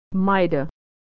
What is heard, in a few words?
"mighta", pronunciation